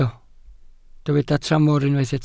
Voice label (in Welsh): "tramor", Welsh